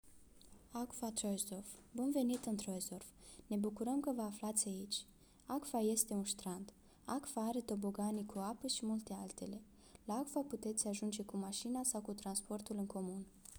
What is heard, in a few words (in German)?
Rumanisch; AGGUA Troisdorf